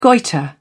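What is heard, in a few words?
"goitre", British English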